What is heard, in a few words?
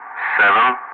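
"7", English; Ka-50; Wingman Digits; DCS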